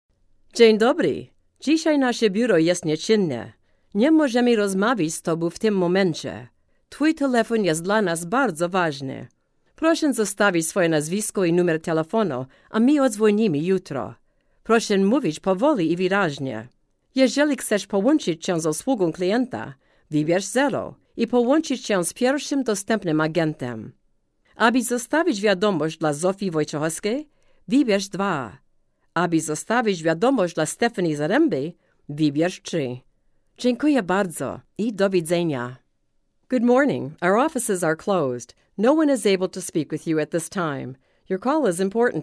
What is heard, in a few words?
Polish English IVR